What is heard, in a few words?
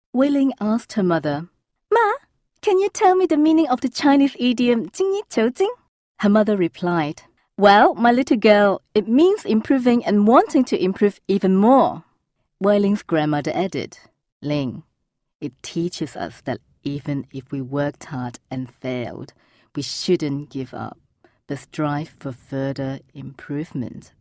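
Chinese Cantonese characters; GoLocalise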